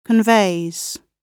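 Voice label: "conveys", British English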